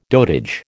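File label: "dotage", American English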